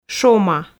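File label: "шома", Komi, female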